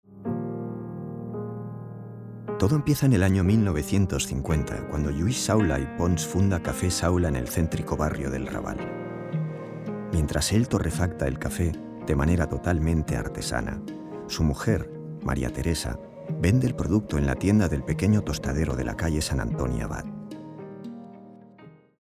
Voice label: documentary, spanish, male

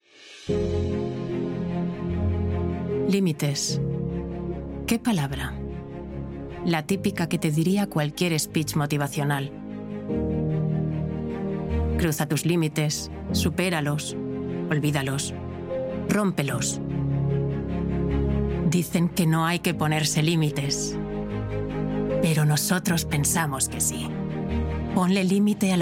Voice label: INSTITUTIONAL, SPANISH FEMALE

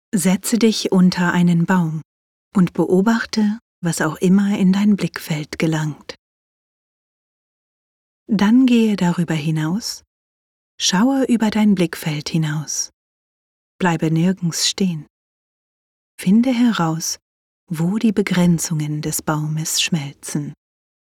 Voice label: Tutorial, GERMAN FEMALE

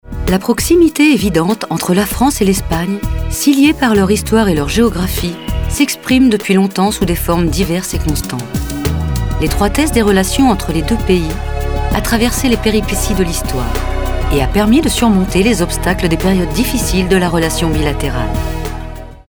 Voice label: Documental, FRENCH FEMALE

